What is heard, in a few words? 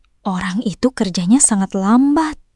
negative phrases indonesian, insults